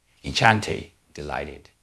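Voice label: Voice Acting, Spanish, male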